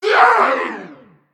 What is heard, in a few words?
Male Mech Death